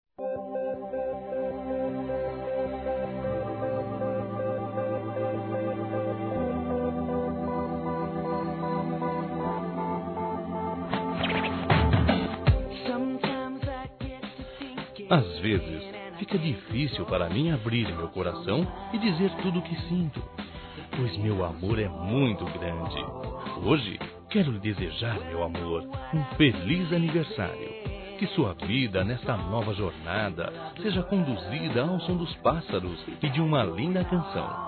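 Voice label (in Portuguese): Namorada ou Esposa Masculino; Aniversários; ANIVERSARIO romantico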